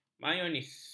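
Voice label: "mayonis", Malay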